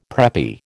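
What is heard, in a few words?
"preppy", American English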